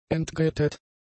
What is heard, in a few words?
"entgrätet", German; adjectives